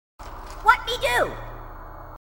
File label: grunt; h2dialogue; grunt crazy